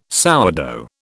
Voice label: "sourdough", British

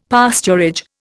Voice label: "pasturage", Indian; Dictionary

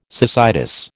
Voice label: "cecitis", American

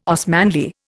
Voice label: "Osmanli", Indian

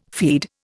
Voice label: "feed", Indian

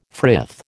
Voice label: "frith", American

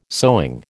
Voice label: "sewing", American

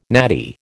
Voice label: "natty", American

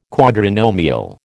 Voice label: "quadrinomial", American

